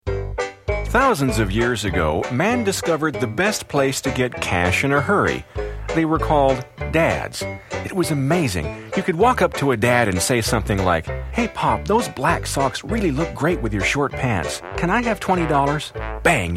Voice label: Commercial, Voiceover; Commercial Demo